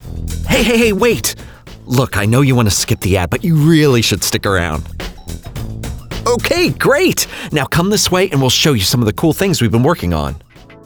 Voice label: Commercial Demo